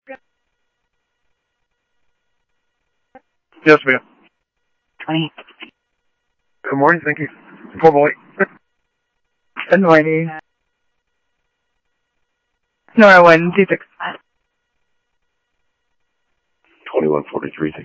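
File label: South Scottsdale Sheriff East County, scanner audio trunk; MCSO - EAST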